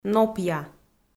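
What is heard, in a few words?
"нопъя", Komi, female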